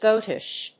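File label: "goatish", English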